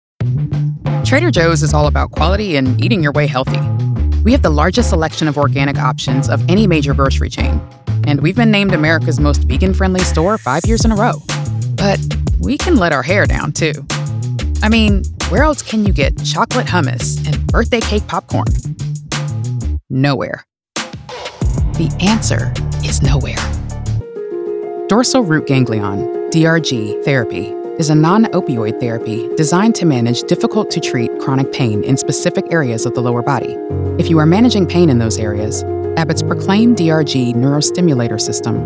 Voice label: Corporate Narration Engaging Knowledgeable Professional Black Female